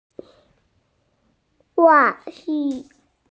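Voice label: "wán jù", Mandarin Chinese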